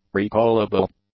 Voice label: "recallable", English; adjectives